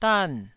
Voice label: "dan4", Taiwanese